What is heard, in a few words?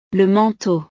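"le manteau", French; ropa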